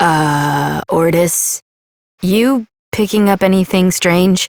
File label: Operator Voice, en; New War; Ascend Tower